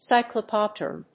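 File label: "cyclocomputer", English; サイクルコンピューター; Weblio英和辞書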